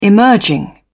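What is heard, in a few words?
"emerging", British English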